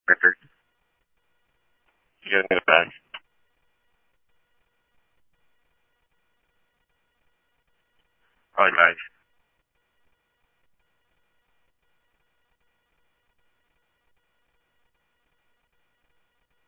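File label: police radio dispatch, ScottsdalePolice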